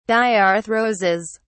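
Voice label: "diarthroses", British English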